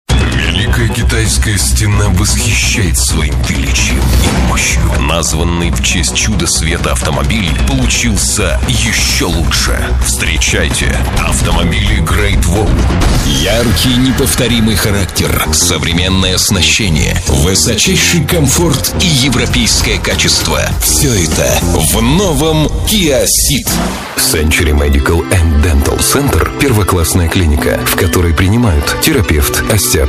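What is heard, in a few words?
ukrainian male commercial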